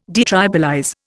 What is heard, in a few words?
"detribalize", Indian